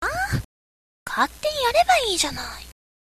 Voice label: girl